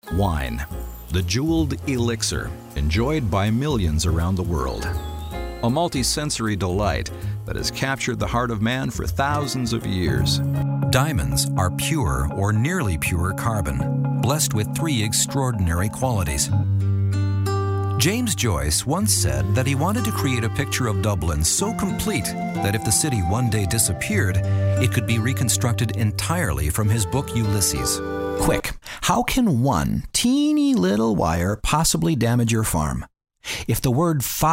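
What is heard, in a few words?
narration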